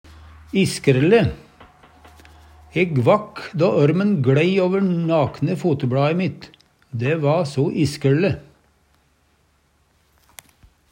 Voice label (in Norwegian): iskrele, Numedalsmål